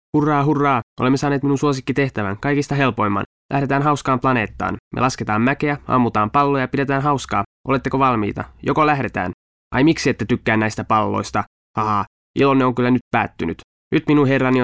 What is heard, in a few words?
finnish m narration; voice talents